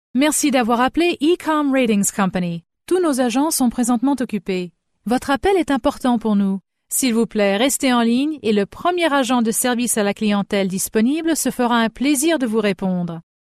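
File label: French Canadian native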